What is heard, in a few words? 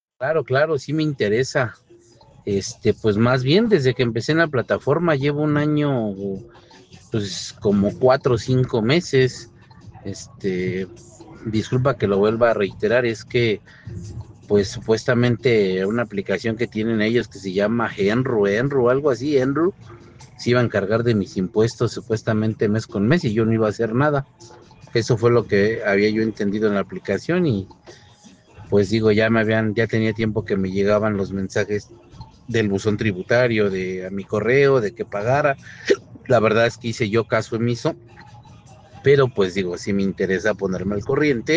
whatsapp ptt